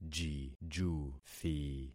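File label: "jijuthi", concatenated syllables; Affixing experiment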